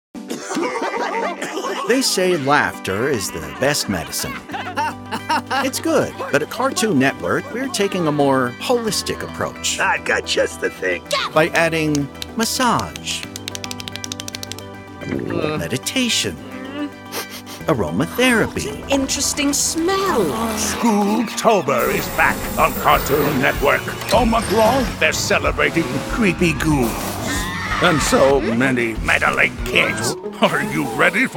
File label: promo men